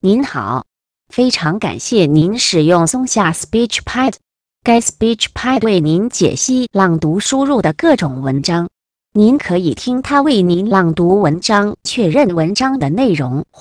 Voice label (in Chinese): Simplified Chinese; voice editing sample